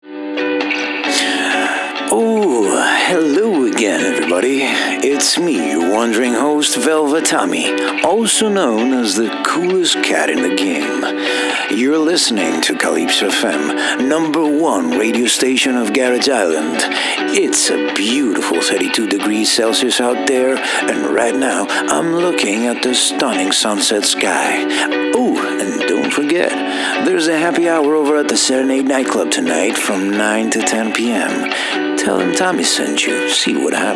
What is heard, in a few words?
ENG English character; Velvet Tommy